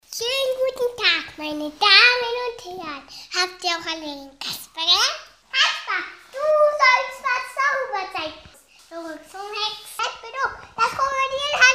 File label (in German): 4 Jahre, Tonbeispiel, Sprache